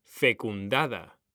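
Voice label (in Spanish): "fecundada", Spanish; mekanta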